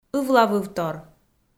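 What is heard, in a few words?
"ывлавывтор", Komi, female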